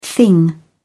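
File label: "thing", British English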